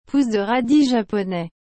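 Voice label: "pousse de radis japonais", French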